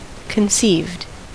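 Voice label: "conceived", American English; pronunciations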